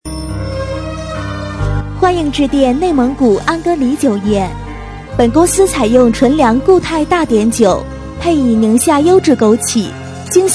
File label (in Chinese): 彩铃 优美温馨; 欢迎致电内蒙古安格里酒业